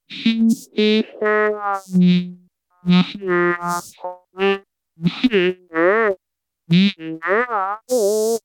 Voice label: mumble